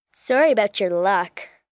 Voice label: Sorry About Luck, Attitude, 1 girl, 11 yrs; Attitude Sound Effects